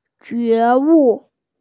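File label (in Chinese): "jue2wu4", Mandarin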